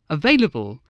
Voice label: "available", english